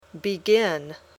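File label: "begin", English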